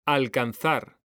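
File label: "alcanzar", Spanish, male; mekanta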